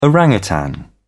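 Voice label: "orang utan", British English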